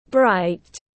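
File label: "Bright", English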